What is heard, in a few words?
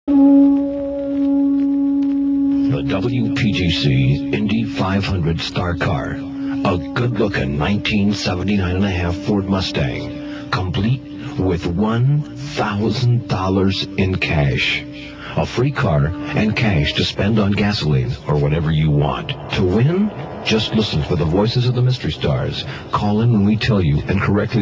promo; 1979 summer; indy 500 star car